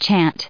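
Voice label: "chant", US English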